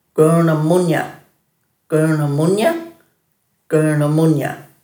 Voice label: "gurnamunya", Dhurga